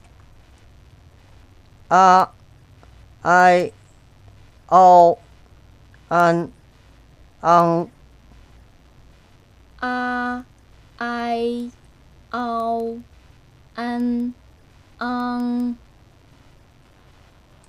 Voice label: pinyin, chinese